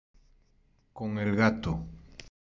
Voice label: voice changer

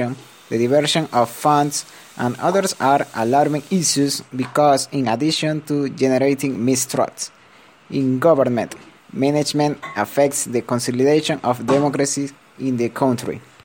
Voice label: News in Ecuador

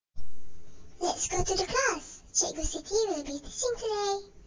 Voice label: VoiceFX kid

